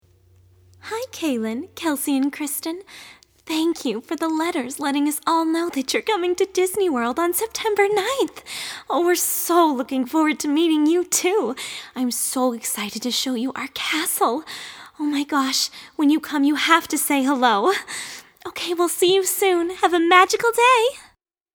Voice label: Invitation, princess voice; birthday; Disney; princess birthday voice wishes